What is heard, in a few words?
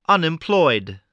"unemployed", English spelling voice